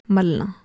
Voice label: "malna", Urdu